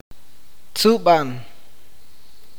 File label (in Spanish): "escupir", awakateko